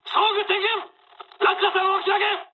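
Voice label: bailout mood high, speech japanese; War Thunder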